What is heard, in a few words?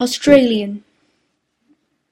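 "australian" English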